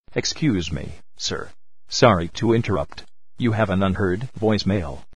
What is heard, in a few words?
Voicemail